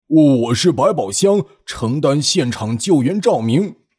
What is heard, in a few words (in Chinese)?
男 角色; 百宝箱